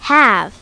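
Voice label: "have", English; My Talking Dictionary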